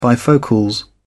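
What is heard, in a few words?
"bifocals", English, British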